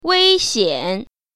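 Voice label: "wei1xian3", Mandarin Chinese Tone Pair Drills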